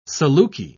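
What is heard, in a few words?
"saluki", British English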